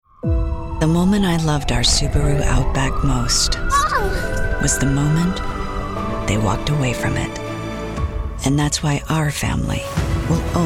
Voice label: Commercial